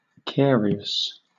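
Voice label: "carious", British English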